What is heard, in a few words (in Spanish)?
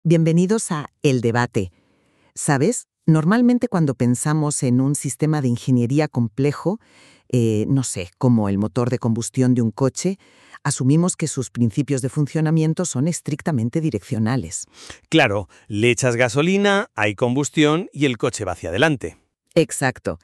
podcast; como montar campana outbound agentes voz ia